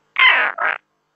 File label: uwu con autotune